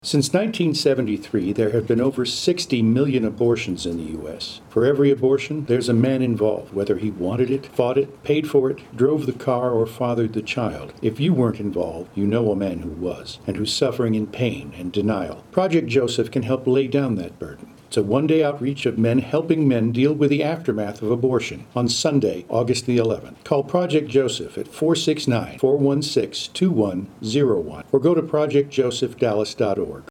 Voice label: PSA, english